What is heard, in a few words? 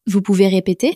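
"vous pouvez répéter", French, female; flashcards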